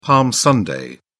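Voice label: "palm sunday", British English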